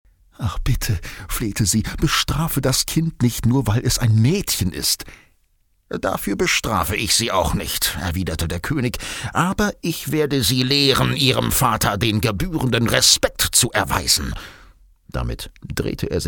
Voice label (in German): hoerbuch, sprecher